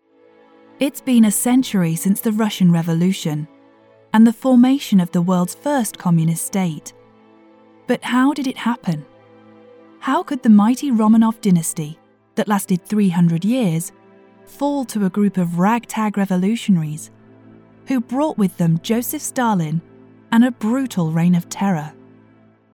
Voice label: female english documentary narration